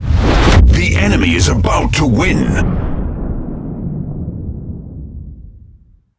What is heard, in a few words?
Speech Domination Enemy Close Win, EN GB; World Of Warships